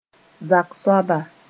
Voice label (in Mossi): "zak soaba", moore